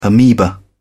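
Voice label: "ameba", English, British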